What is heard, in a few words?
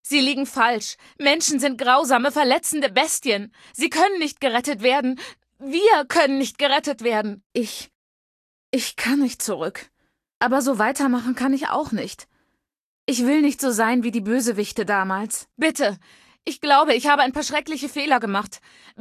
Female adult default